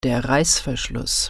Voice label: "zipper", German